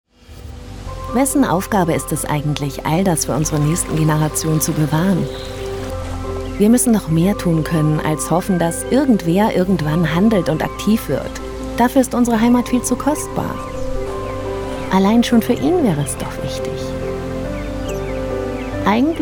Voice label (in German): Imagefilm Energie; badenova